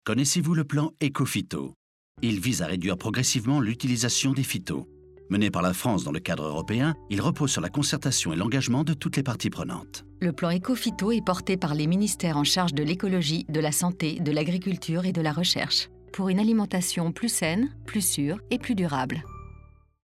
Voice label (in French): pub radio; ecophyto